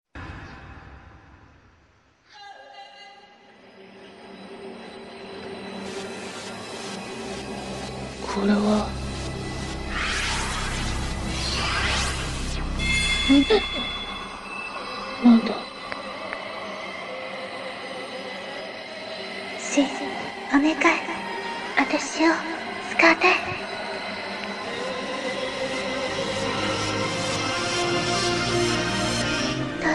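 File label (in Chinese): 动漫日语; 动漫塑料日语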